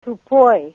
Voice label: dull, Ninilchik Russian